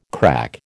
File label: "crack", American